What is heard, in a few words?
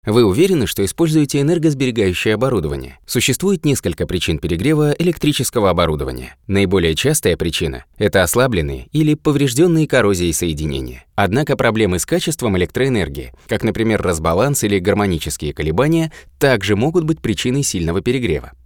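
dynamic presentation, Russian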